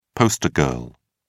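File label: "poster girl", British English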